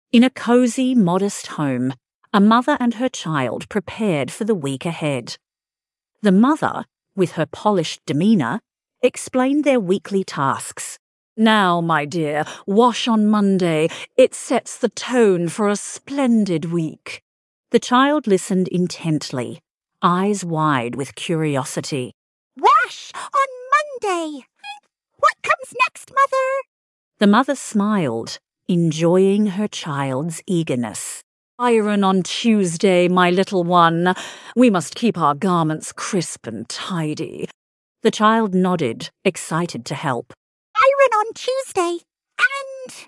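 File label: female Australian story; Wash on Monday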